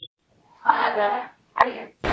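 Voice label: Voice Acting Spanish Female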